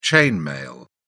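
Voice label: "chain mail", British English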